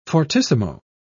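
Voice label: "fortissimo", English, British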